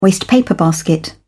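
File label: "wastepaper basket", British English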